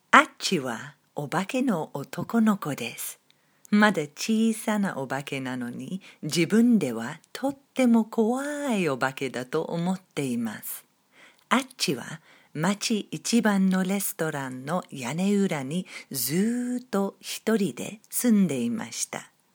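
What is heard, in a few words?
Japanese, narrator UK female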